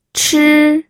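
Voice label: "chi", Mandarin Chinese, first tone; pinyin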